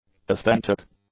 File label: "authentic", English; adjectives